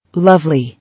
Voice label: "Lovely", English

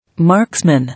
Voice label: "Marksman", English

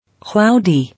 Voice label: "Cloudy", English

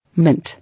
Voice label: "Mint", English

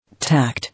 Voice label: "Tact", English

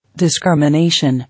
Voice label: "Discrimination", English

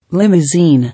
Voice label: "Limousine", English

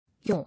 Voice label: "Yore", English

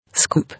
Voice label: "Scoop", English

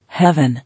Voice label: "Heaven", English

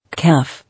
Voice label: "Café", English

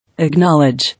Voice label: "Acknowledge", English

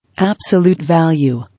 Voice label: "Absolute value", English

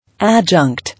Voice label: "Adjunct", English

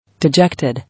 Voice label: "Dejected", English

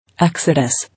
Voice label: "Exodus", English

